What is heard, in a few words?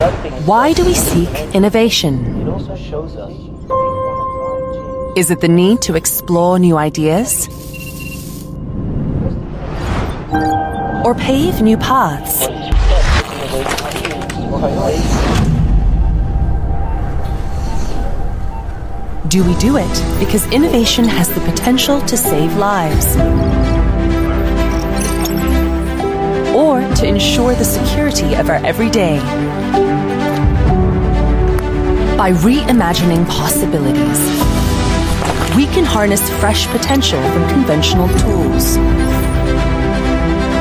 Asian English; Hatch